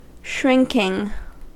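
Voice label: "shrinking", American English; pronunciations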